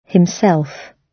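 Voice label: "himself", British English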